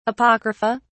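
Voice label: "apocrypha", British English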